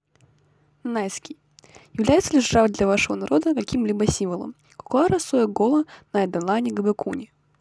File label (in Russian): нанайский предложение